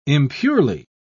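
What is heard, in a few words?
"impurely", British English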